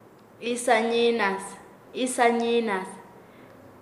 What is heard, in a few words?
Nasa Yuwe; balanza; paez